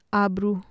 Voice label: "abru" brow, Urdu